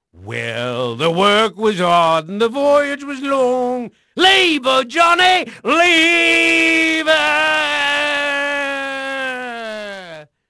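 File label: English male; Pirates Of The Caribbean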